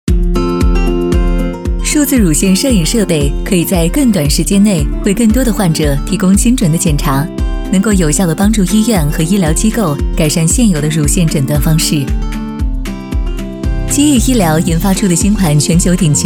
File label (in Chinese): 女 专题 磁性科技感御姐范儿; NOVA